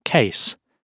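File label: "case", British English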